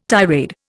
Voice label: "tirade", Indian